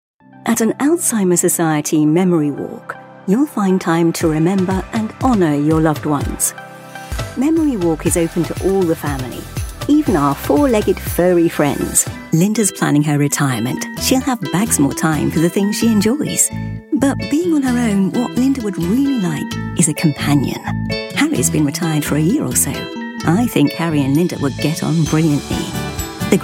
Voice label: charity reel